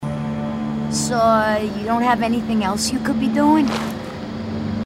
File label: female marine